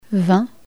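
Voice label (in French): "vin" French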